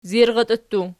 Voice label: "zirratii", Archi